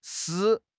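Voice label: "shi3", Mandarin Chinese; HSK4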